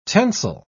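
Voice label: "tensile", English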